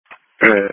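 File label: Police Patrol Dispatch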